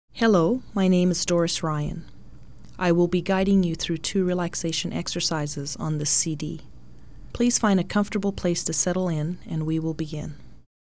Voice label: Relaxation intro